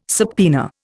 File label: "subpoena", Indian